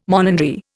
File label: "monandry", Indian